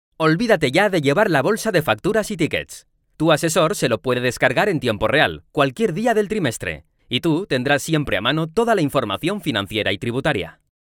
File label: spanish radio imaging